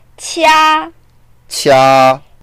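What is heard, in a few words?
"qia1", Mandarin Chinese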